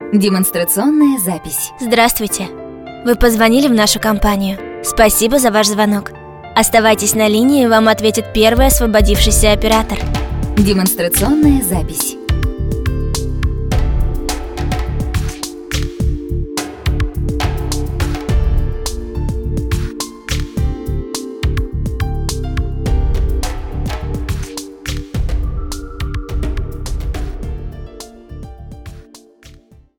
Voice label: IVR